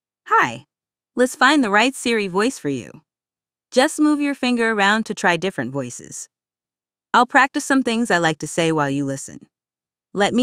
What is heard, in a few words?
en US; iOS 26